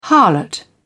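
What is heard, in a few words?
"harlot", British English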